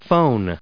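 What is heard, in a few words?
"phone", English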